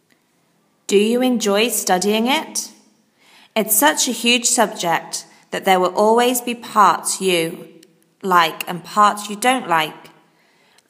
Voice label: Do you enjoy studying it, ielts speaking sample answer